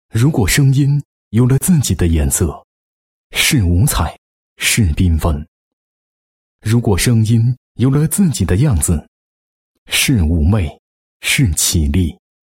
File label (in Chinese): 男 公益宣传; 如果声音有了自己的颜色